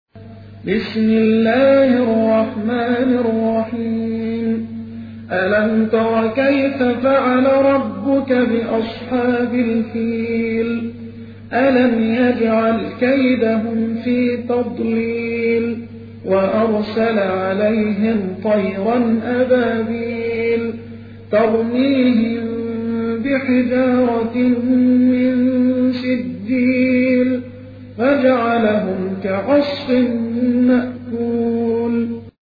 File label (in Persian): ترتیل سوره مبارکه فیل; محمد عبدالعزیز حصان